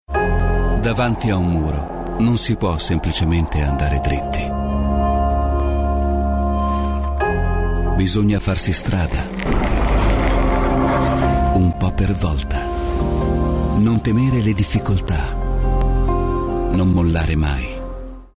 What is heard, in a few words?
male italian comercial